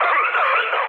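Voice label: damaged pilot, mood low, Polish speech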